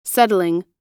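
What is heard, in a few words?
"settling", American English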